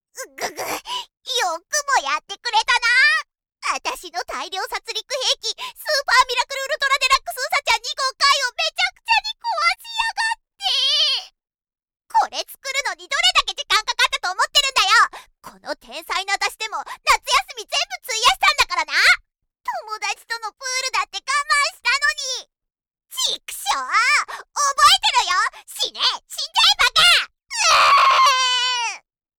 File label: female